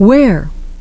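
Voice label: "where", English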